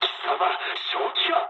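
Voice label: Japanese player killed ally mood low; War Thunder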